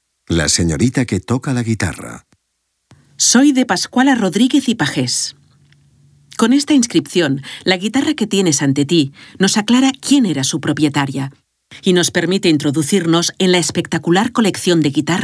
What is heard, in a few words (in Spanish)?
audioguia; delamusica espanyol